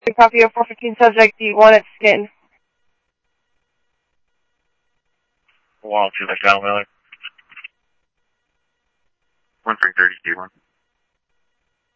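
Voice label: police radio dispatch, Scottsdale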